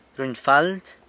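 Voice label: "runyfald" does not have enough of (something), San Lucas Quiaviní Zapotec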